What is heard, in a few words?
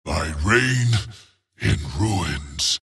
wraith death; dota; skeleton king